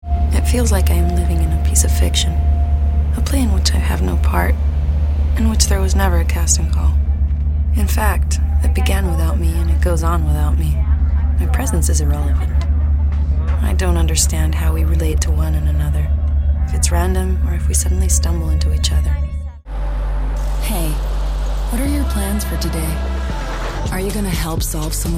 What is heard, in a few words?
Natural